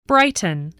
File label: "brighten", spelling